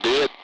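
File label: Speech, Russian; Allied Flight; Digits; DCS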